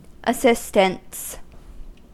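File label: "assistants" English, US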